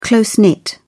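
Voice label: "close-knit", British English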